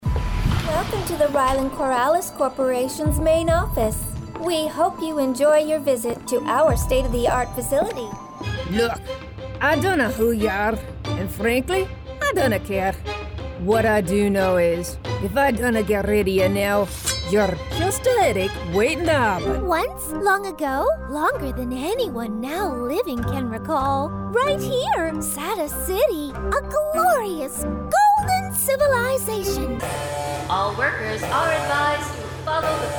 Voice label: english canadian video games